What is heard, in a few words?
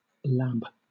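"lab", British English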